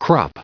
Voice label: crop, en-us